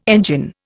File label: "engine", Indian